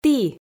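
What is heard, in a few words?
"ті", Komi, female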